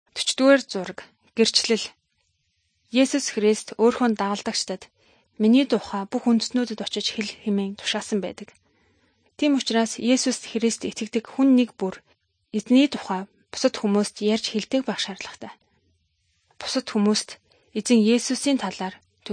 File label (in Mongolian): good news mongolian